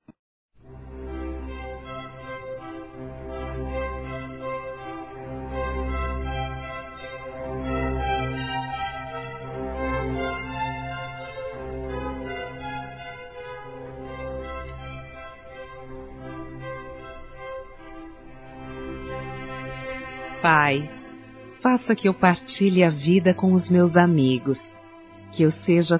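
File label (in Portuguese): ORAÇÃO, Feminino; PELOS AMIGOS; Amizade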